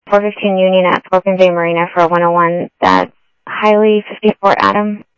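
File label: Lakes Sheriff Lake Patrol, scanner audio trunk; MCSO Lakes 1; ThompsonPeakScot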